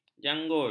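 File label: "janggul", Malay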